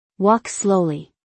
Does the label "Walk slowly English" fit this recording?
Yes